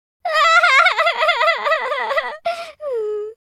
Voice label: sad, German